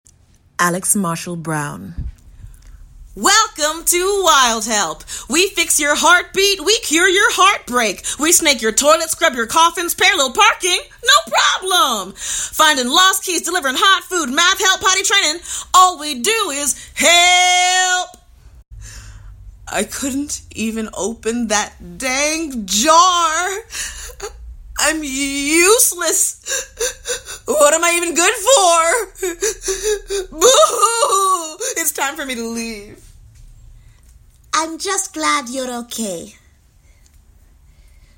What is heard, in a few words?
Character Voices